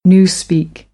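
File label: "newspeak", American English; AmericanBritish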